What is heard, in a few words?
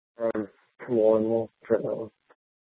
Sheriff scanner; Shrf Mnt (Parks)